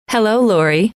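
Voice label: "hello", female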